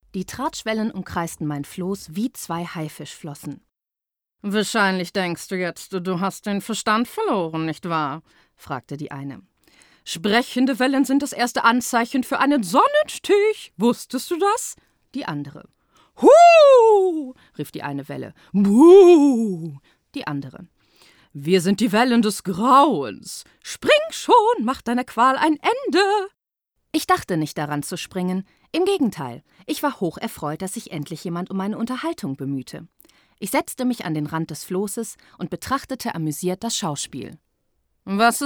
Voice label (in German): Lesung Trickstimme